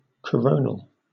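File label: "coronal", British English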